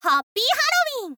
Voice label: "happy halloween", girl